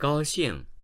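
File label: "gao xing", Chinese, tones 1 and 4, male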